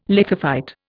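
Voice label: "lithophyte", Indian English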